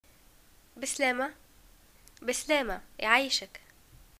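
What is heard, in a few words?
greeting farewell, Tunisian Arabic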